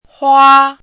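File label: "hua1", Mandarin Chinese syllable, pinyin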